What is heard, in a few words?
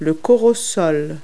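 "soursop", English word; Multi-Lingual Talking Picture Dictionary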